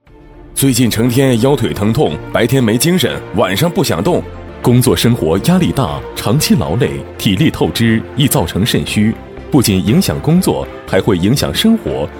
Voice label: 【广告】保健酒

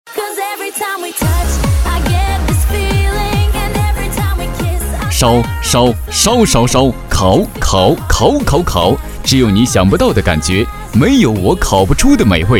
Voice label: 叫卖促销 烧烤

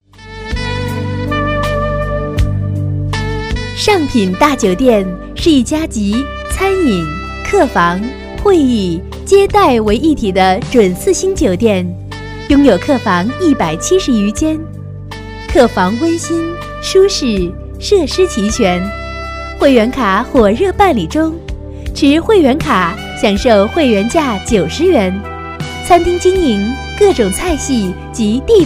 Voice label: 【广告】; 尚品酒店

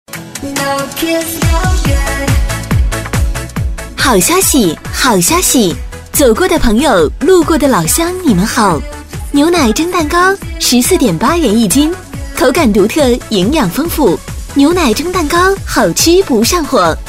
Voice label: 【促销】; 牛奶蒸蛋糕

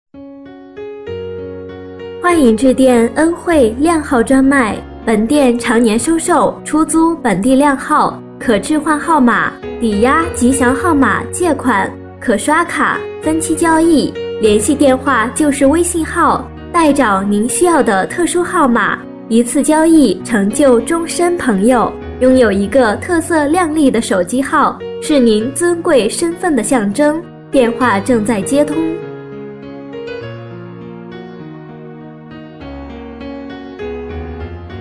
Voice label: 彩铃; 恩会吉靓号专卖

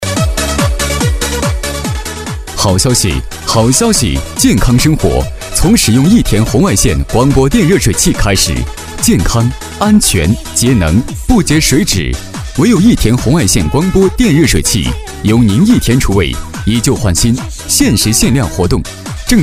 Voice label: 促销 好消息

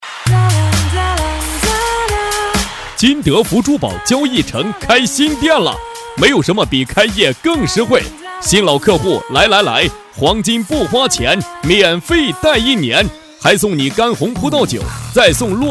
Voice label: 【促销】; 金德福珠宝

